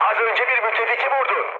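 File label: Turkish player killed ally, mood high, speech; War Thunder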